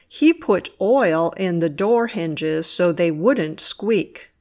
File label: squeak sentence